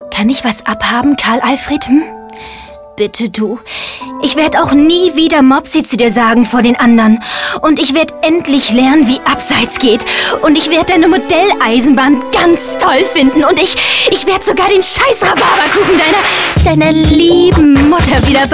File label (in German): Schokolade Werbung